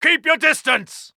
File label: uk Neutral